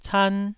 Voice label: "can1", taiwanese